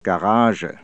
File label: garage, German